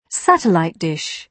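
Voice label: "satellite dish", British English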